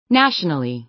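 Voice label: "nationally", American English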